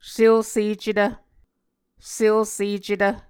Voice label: "selsecetv", Muscogee

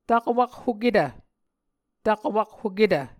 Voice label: "takwakhoketv", Muscogee

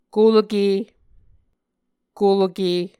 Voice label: "kulke", Muscogee

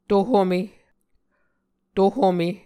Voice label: "to-home", muscogee

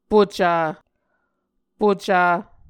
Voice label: "puca", Muscogee

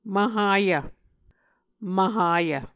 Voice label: "mvhayv", Muscogee

